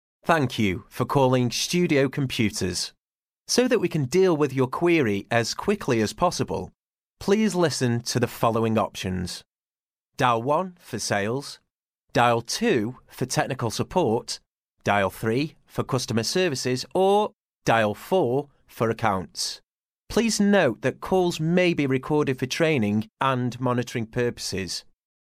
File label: ivr